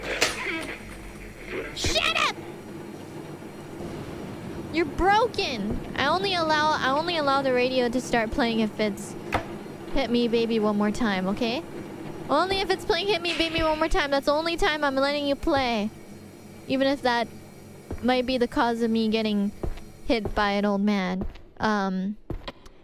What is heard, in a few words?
malding at a radio